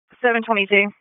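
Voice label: Dispatch, P25; WSO DISP 1; wake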